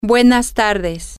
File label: good afternoon, spanish, F